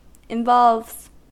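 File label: "involves", US English; pronunciations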